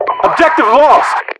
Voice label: lost obj, US English